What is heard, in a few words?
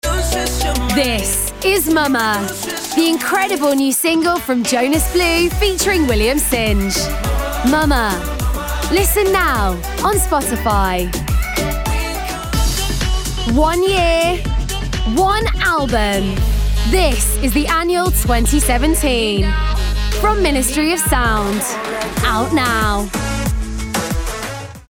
Music Commercials